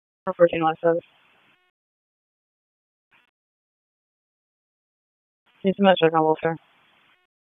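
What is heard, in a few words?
Raleigh Police Southeast District Dispatch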